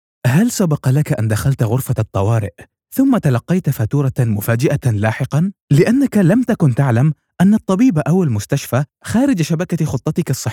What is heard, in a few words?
Informational Video Narration Arabic; Narration 03 – Emergency